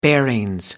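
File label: "bearings", English, British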